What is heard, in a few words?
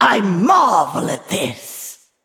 Female Val'kyr